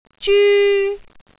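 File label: "zyu1", Cantonese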